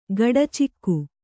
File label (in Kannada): "ಗಡಚಿಕ್ಕು", Kannada